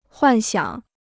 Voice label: "幻想", Chinese

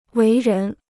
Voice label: "为人", Chinese

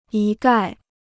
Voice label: "一概", Chinese

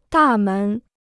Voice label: "大门", Chinese

